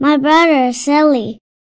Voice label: human girl

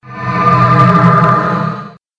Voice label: monster djinn

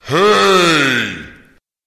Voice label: monster giant

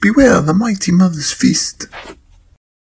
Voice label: dwarf woman

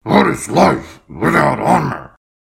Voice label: npc orc armorsmith

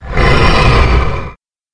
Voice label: monster titan

